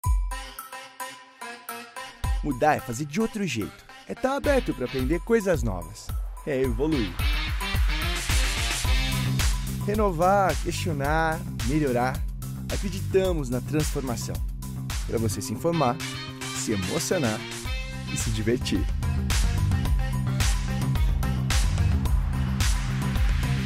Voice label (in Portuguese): MOTIVACIONAL; TV TEM NOVA MARCA